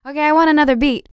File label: FEM SPOKEN; ILIO; Vocal Planet VOL-4; R&B & Dance